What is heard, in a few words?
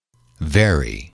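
"very", English, male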